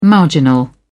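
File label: "marginal", English, British English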